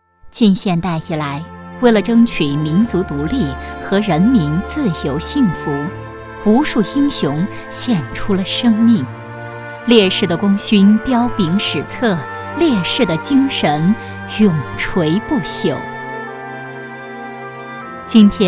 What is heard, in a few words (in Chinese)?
女 专题 请不要忘记他的名字人物